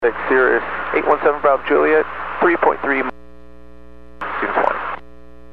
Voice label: Aviation 122.7; KSTE